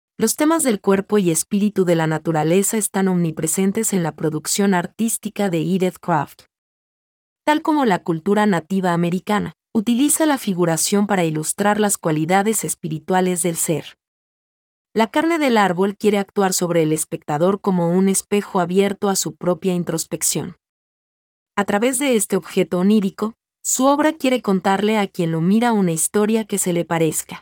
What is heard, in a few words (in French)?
espagnol